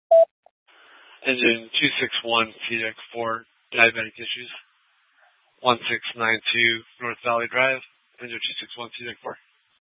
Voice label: FD Dpts VHF 156.240 Patch; TOPAZ Rgnl Wireless Co-op (TRWC)